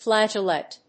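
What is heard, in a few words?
"flageolet", English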